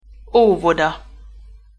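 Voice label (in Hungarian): "óvoda", Hungarian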